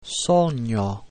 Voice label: Italian "sogno"